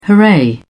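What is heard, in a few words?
"hooray", British English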